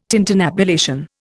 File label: "tintinnabulation", Indian